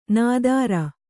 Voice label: "ನಾದಾರ", Kannada

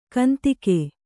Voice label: "ಕಂತೆಗ", Kannada

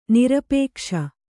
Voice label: "ನಿರಾಪೇಕ್ಷ", Kannada

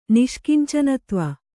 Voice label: "ನಿಷ್ಕಿಂಚನತ್ವ", Kannada

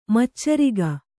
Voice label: "ಮಚ್ಚರಿಗ", kannada

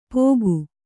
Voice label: "ಪೋಗು", kannada

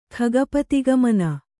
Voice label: "ಖಗಪತಿಗಮನ", kannada

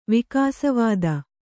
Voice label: "ವಿಕಾಸವಾದ", kannada

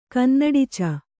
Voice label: "ಕನ್ನಡಿಚ", Kannada

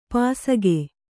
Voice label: "ಪಾಸಿಕೆ" Kannada

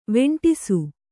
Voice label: "ವೆಂಟಿಸು", Kannada; veṇṭisu; to associate.; to coil.

